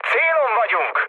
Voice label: near waypoint, mood low, speech hun; War Thunder